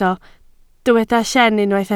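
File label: "llen" Welsh, spoken word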